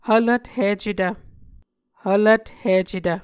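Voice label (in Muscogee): "hvlvthicetv", Muscogee word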